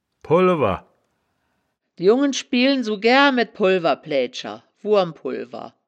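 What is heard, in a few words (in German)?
"polwer", wittlicher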